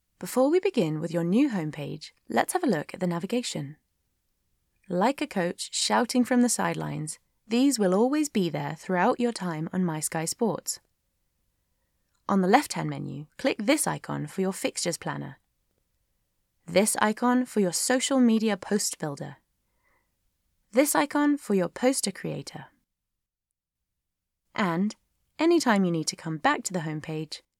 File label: British